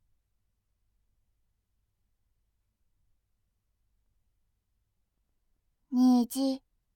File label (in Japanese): 「虹」, ASMR